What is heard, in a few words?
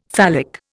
"phallic", Indian; Dictionary